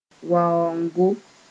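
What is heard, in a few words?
"waoongo", Mooré; coming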